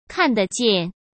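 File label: "看得见", Mandarin Chinese; hsk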